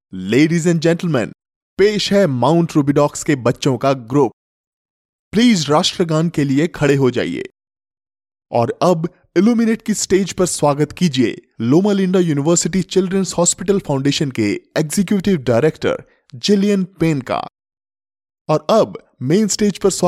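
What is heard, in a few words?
Hinglish India male voice over, upbeat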